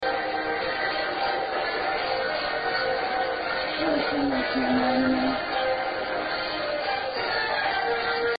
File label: furniture room whisper cubby; paranormal; elsings 2nd hand shop